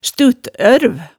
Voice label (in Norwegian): "stuttørv", hemsedalsmaalet, tale